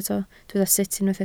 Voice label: "sut", Welsh